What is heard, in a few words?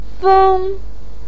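"feng" first tone, Mandarin Chinese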